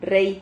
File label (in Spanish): "rrehi", silabas